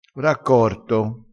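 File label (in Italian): "raccorto", dialettale, crespinese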